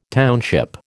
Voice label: "township", American

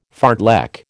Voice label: "fartlek", American

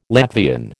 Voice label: "Latvian", American

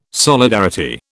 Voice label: "solidarity", British

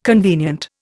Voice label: "convenient", Indian

